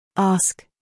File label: "ask" uk female